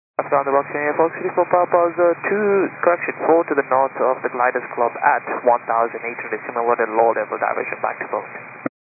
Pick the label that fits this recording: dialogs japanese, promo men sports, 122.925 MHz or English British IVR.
122.925 MHz